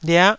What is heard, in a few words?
"lia9", Taiwanese syllable